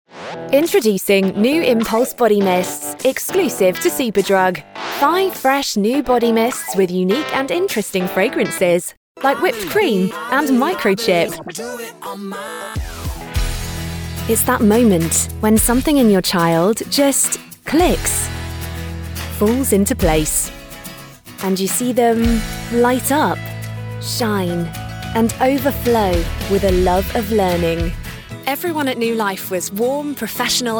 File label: Commercials